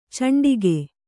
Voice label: "ಚಂಡಿಗೆ", kannada

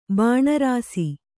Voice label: "ಬಾಣಾರಸಿ", Kannada

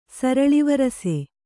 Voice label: "ಸರಳಿವರಸೆ", Kannada

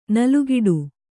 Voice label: "ನಲುಗಿಡು", Kannada

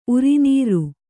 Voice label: "ಉರಿನೀರ್", kannada

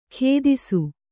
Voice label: "ಖೇದಿಸು", Kannada

